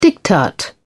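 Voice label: "diktat", British English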